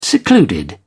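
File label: "secluded", British English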